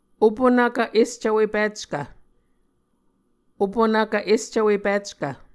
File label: "opunvkv escvwepickv", Muscogee